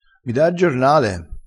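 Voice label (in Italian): "ne denti", dialettale crespinese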